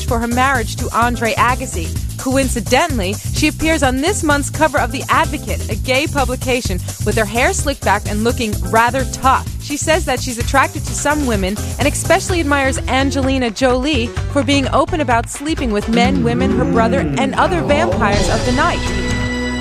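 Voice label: RADIO Entertainment News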